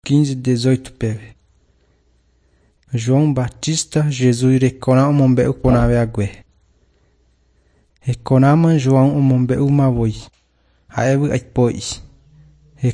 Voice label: john guarani mbya, bible

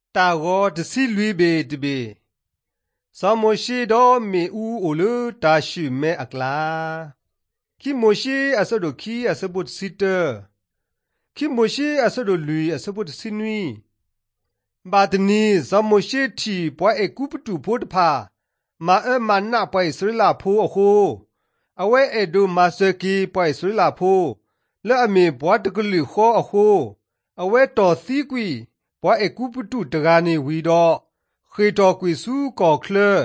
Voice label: drama, karen sgaw; mighty men of god; bible

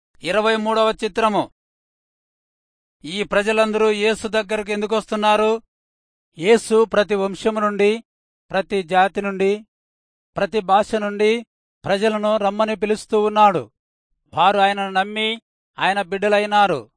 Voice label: telugu rayalseema; good news

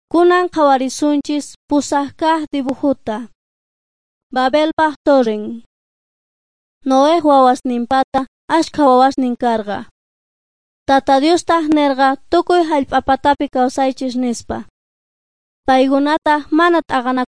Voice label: beginning with god quechua s bolivian cochabamba

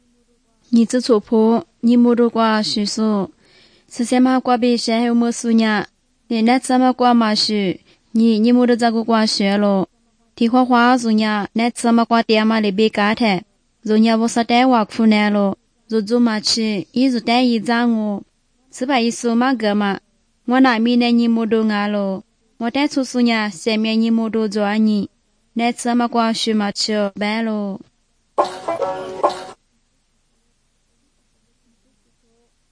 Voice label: good news lisu southern, drama

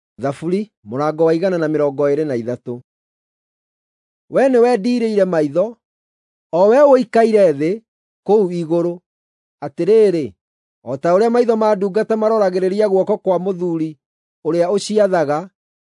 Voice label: psalms, gikuyu drama

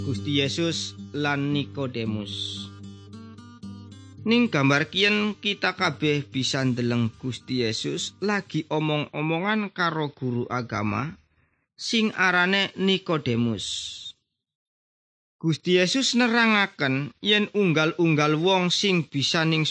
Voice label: good news jawa indramayu, javanese bible

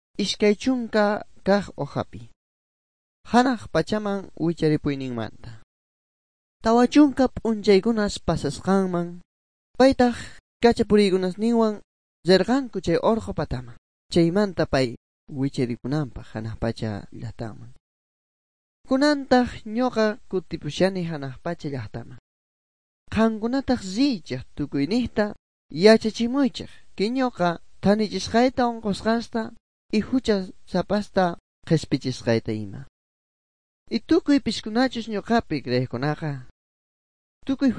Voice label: good news quechua bolivian sucre; bible

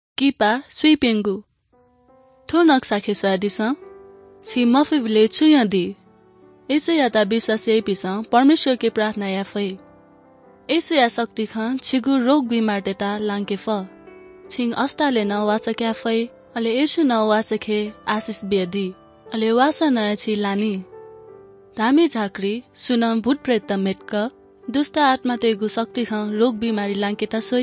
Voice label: good news newari bhaktapur; baalaangu khaan; bible

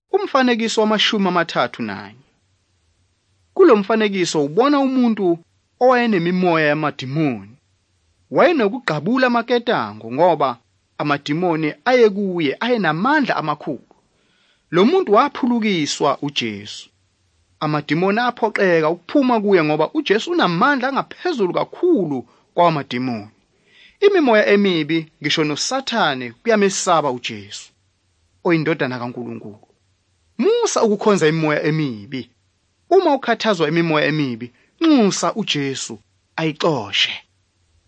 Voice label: zulu bible; good news

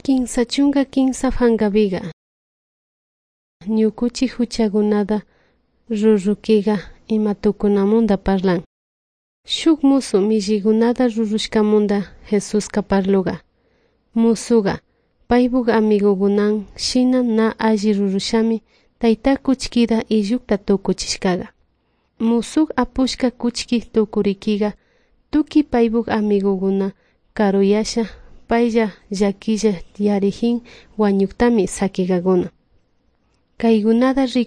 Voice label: good news quichua de salasaca

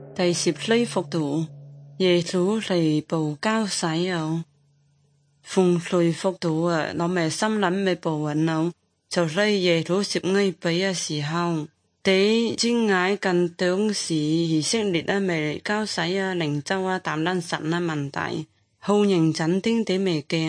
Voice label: good news zhuang guigang guiping

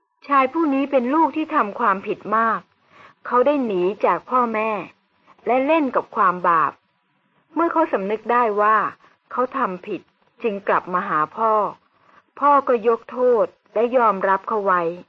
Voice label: good news short thai central

